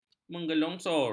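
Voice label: "menggelongsor", Malay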